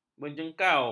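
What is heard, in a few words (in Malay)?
"menjengkau", Malay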